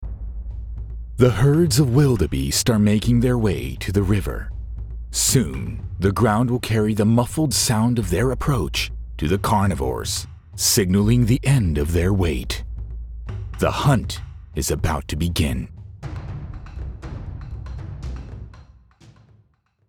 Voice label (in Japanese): 自然ドキュメンタリー 番組 TV ラジオ ウェブ等 熱情的 力強い